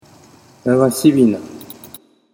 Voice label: "nagashibina", Japanese